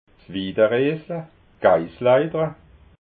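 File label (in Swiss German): "widareesla", Alsatian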